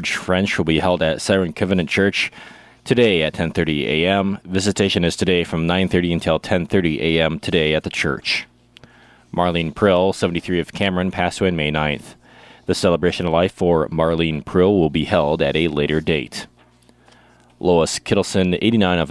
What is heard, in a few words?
Funeral Announcements